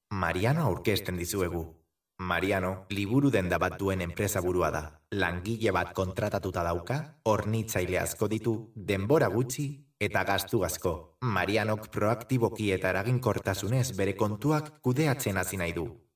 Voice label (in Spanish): EUSKERA MASCULINO, video comercial